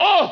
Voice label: "uh", male